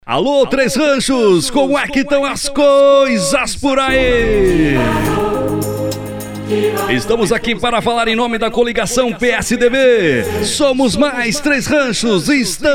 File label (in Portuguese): dj e locutor